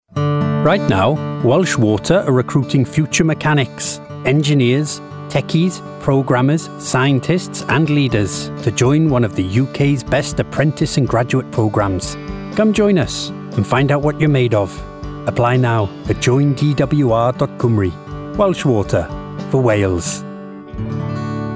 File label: Commercial Water; Welsh